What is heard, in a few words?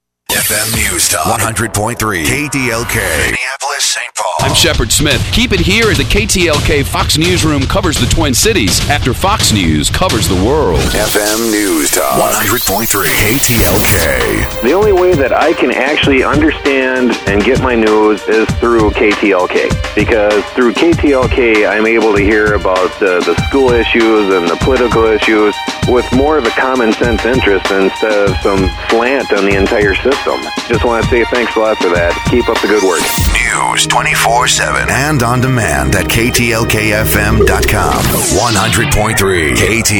Newstalk Imaging